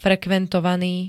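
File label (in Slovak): "frekventovaný", Slovak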